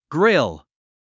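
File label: "grill", English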